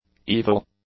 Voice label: "evil", English; adjectives

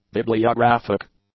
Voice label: "bibliographic", English; adjectives

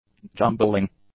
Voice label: "jumbling", english; adjectives

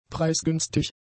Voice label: "preisgünstig", German; adjectives

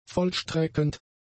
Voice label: "vollstreckend", German; adjectives

